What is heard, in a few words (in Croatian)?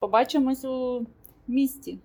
ukrajinski; POP UP Zagreb